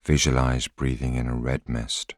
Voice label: voiceover